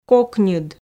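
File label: "кокньыд", Komi, female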